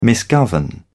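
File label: "misgovern", British English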